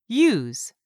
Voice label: "use", English; 3000 words